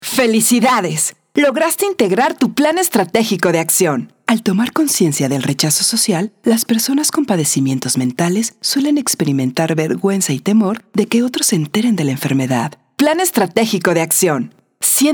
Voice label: e-learn